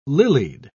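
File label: "lilied", British English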